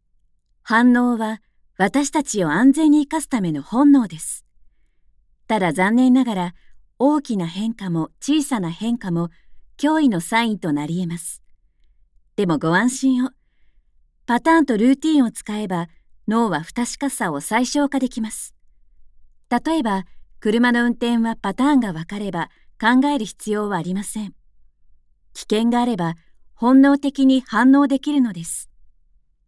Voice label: dubbing sample